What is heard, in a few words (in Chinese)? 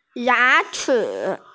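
"ya2chi3", Mandarin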